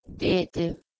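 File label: "dheh1ti", Proto-Indo-European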